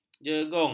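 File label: "jegung", Malay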